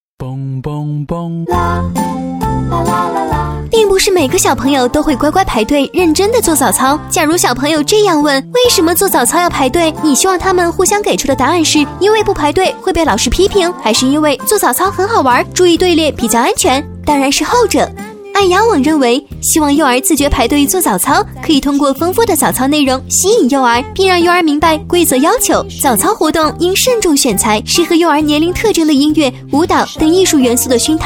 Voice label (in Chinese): 女; 如何引导幼儿自觉; 飞碟说